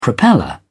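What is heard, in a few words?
"propeller", British English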